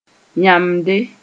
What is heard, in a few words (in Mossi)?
"yãmde", moore